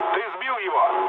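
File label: player killed enemy, mood low, Russian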